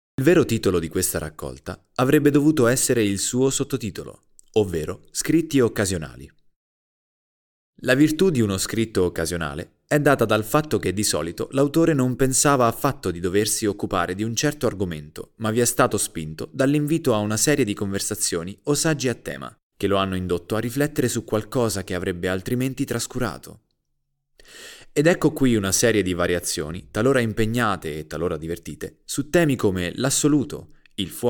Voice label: 意大利语男 外语 小语种

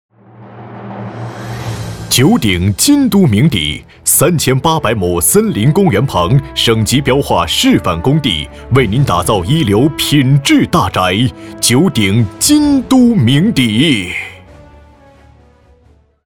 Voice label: 男 广告 促销 激情; 地产促销

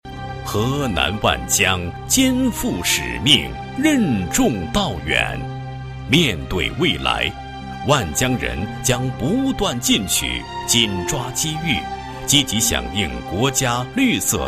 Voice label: 男国 宣传片 企业 大气; 河南万江新能源开发有限公司

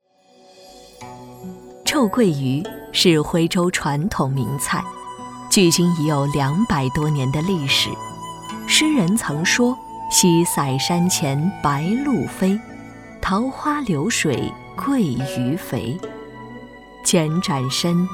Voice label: 专题 产品 鱼 讲述

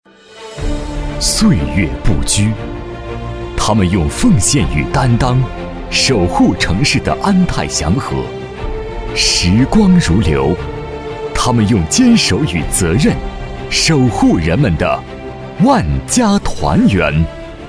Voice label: 男国 专题 党建 大气; 济南电网

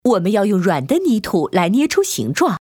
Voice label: 动画片 斑马女士

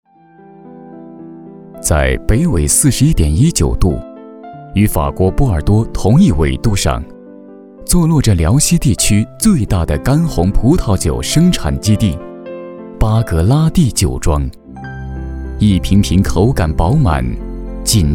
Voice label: 专题 企业 红酒基地 稳重, 男国

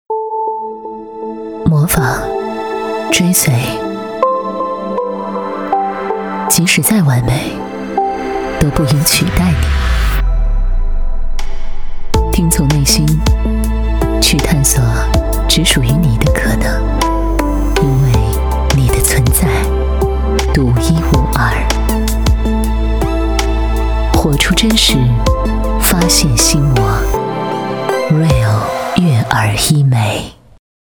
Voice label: 广告 医院医药 优雅; 悦尔医美

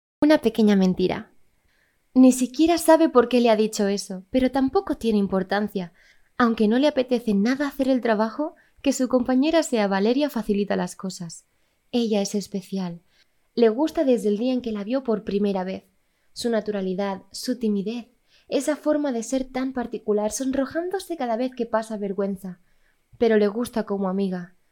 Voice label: 西班牙语女 外语 小语种

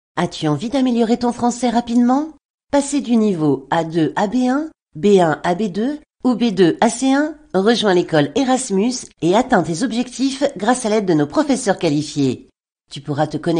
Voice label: 法语女, 外语, 小语种

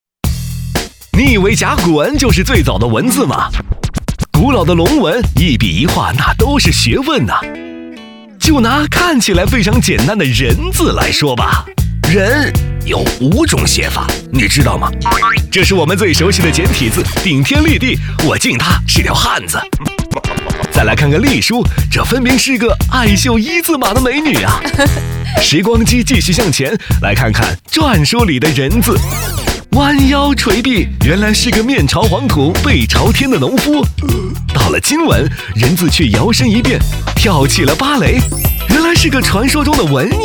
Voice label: 男国 宣传片 病毒; 陶寺的秘密

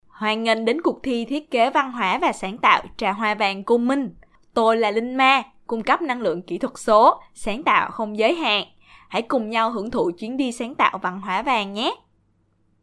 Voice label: 越南语女, 外语, 小语种

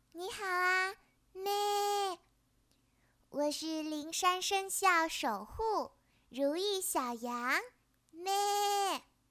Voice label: 动画 童声 女童; 女童如意小羊

